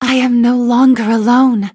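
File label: levelup, English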